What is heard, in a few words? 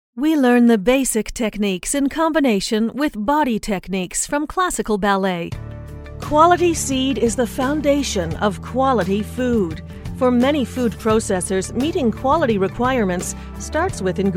eLearning Female; commercial voiceover